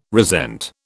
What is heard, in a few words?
"resent", British